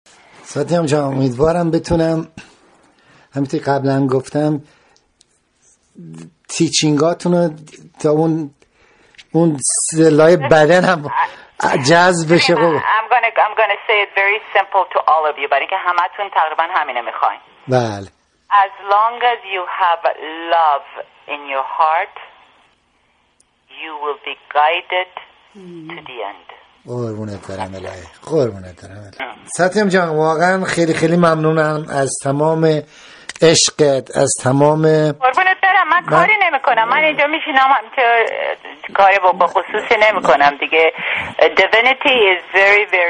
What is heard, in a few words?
phone, perfect; sofre & sleep over in cave